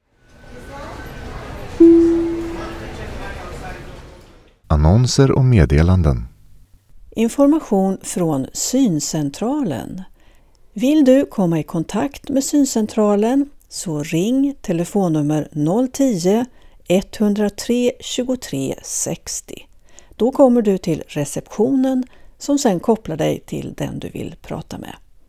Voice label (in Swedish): annonser; syncentralen; taltidning